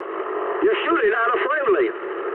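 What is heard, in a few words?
English player friendly fire mood medium; speech; War Thunder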